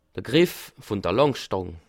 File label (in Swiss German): "lankstang", Alsace